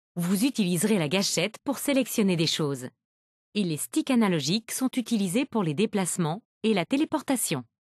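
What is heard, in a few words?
select, French (Canada); retaildemo; Windows; Mixed Reality Learning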